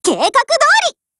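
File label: Japanese, Voice; GF Alarm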